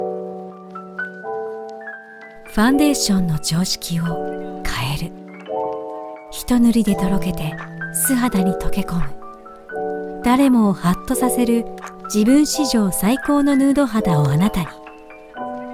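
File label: Japanese Commercial; GoLocalise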